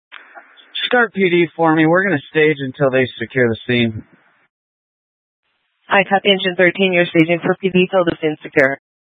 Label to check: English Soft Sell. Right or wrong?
Wrong